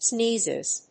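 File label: "sneezes", English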